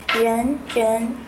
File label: "ren" female